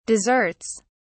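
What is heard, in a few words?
"desserts", British English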